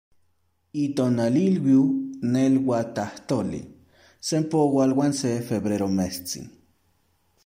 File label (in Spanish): Nahuatl